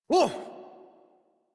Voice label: Horse Stop Adult; Warframe; Duviri